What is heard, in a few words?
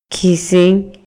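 Maya, male; RELIGIÓN Y CREENCIAS; INALI; MayaYuc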